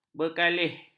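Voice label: "berkalih", Malay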